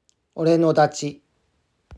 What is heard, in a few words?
Ore no Dachi Pronunciation